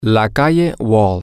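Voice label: wall Street, Spanish, male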